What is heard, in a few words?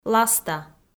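"ласта", Komi, female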